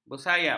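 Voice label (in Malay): "bersayap", Malay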